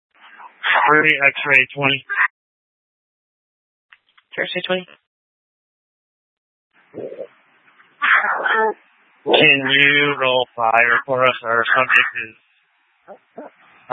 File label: Tempe PD, scanner; Rgnl Wireless Co-op (RWC)